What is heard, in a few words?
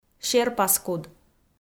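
"серпаскуд", Komi, female; kpv audio dict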